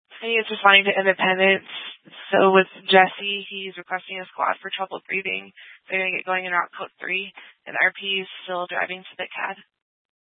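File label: Police 1 Dispatch, Scanner audio recording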